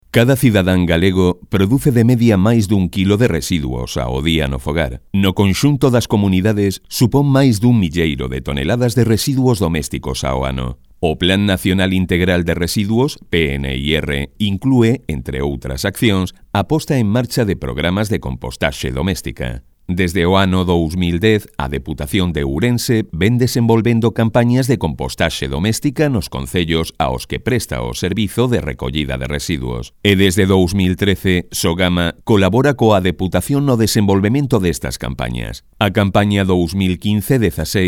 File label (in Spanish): Gallego; Sogama